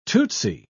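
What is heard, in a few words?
"tootsy", British English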